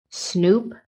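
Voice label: "snoop", English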